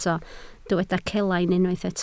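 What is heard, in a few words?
"celain" Welsh, spoken word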